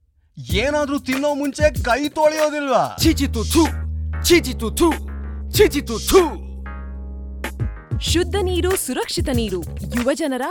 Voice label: Kannada Audio Spot; Youth Hand Washing and Safe handling of drinking water